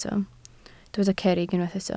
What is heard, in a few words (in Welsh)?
"cerrig", Welsh